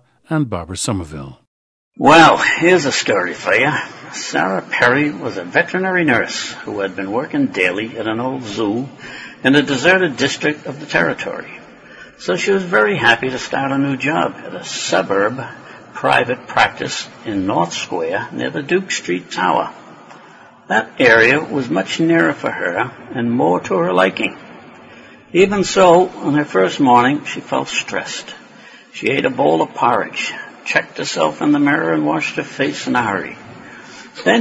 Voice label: massachusetts, dialects